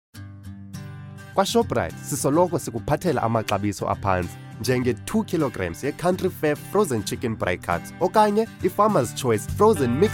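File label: Xhosa, hardsell; Shoprite; GoLocalise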